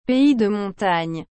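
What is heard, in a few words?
"pays de montagne", French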